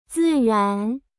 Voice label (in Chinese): "自然", tone sandhi